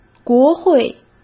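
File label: "国会" Mandarin Chinese; hsk; chinese-flashcards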